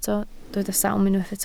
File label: "sawm", Welsh